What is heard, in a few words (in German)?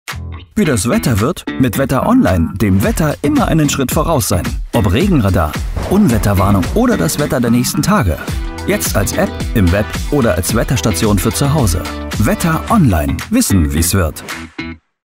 sprecherdemo werbung tv; Sprecher in Leipzig; Muttersprache Deutsch; wetteronline